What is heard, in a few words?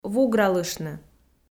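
"вугралыштны", Komi, female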